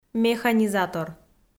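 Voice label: "механизатор" Komi, female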